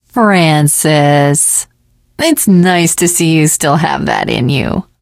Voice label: teengirl, voice; Left 4 Dead 2; l4d1 finale